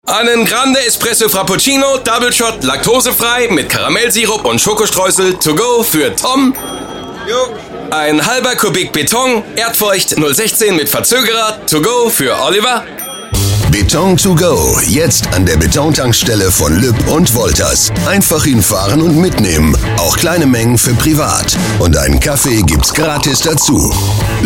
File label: Radiospot; Lueb-Wolters; Beton-ToGo